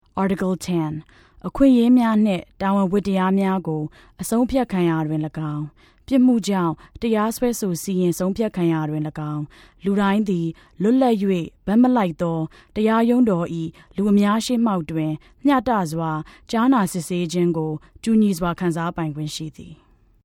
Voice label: Burmese